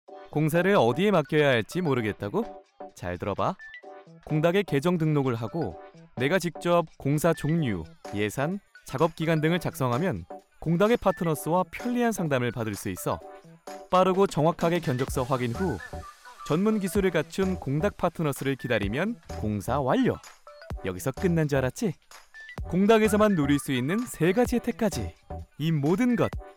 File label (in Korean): 기업홍보 젊은 맑은